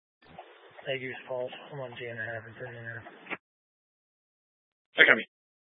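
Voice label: Sheriff Dispatch, Scanner audio recording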